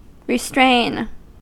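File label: "restrain", American English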